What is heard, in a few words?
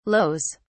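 "lows", British English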